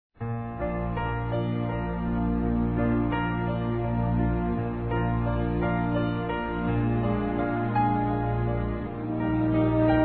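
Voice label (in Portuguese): religious mother-in-law, female; Dia das Mães; SOGRA RELIGIOSA; Telemensagens 2017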